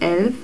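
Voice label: "ELF", German; telltime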